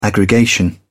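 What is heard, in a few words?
"aggregation", British English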